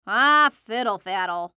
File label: Cartoon Voice Female; Cartoon Voices; Blastwave